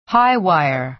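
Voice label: "high wire", American English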